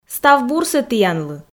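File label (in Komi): "став бурсӧ тіянлы", Komi, female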